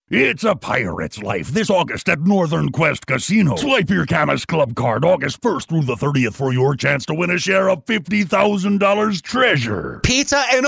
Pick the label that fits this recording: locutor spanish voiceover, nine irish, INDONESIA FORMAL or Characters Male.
Characters Male